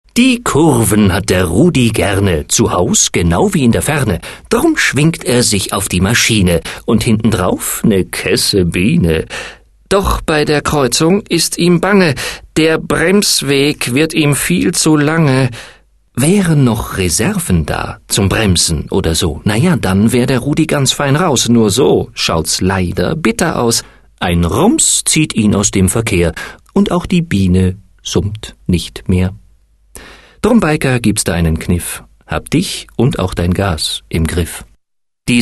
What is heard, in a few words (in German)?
radiospot; bikersproject